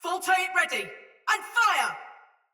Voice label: Attack Soldier Mace Agent Female; Ode Tech; Sinecure; Battle; Sinecure Melee